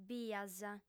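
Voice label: "bias", female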